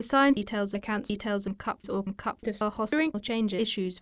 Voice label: unit selection prosody only